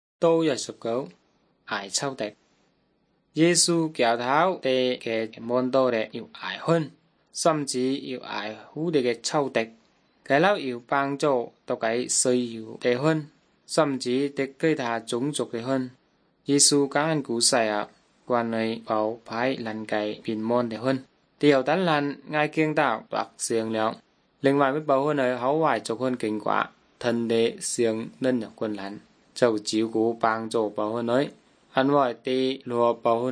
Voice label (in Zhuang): good news zhuang nanning fushu; zhuang yongbei; bible